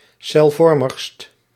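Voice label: "celvormigst", Dutch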